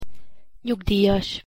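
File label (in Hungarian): "nyugdijas", Hungarian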